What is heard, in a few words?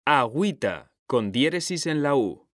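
"agüita", Spanish, male; mekanta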